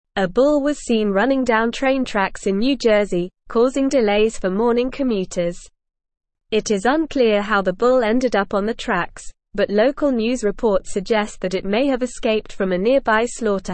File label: English Newsroom Advanced NORMAL Reading; Bull on New Jersey train tracks causes delays